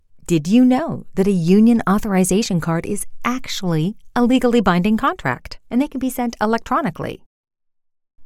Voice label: Playful Intelligent